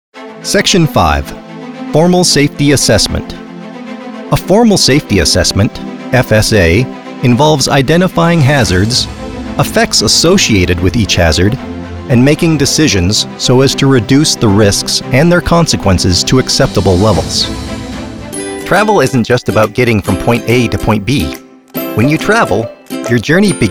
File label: NARRATION